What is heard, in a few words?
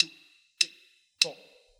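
"2 3 4" with clicks; numbers and letters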